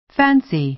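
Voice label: "fancy", American English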